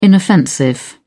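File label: "inoffensive", British English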